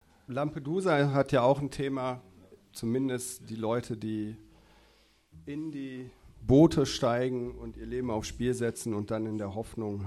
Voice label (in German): ansage; abgesang